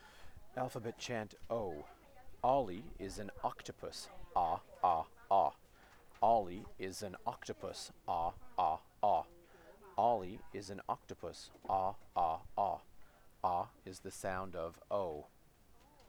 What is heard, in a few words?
alphabet chant 'o' slow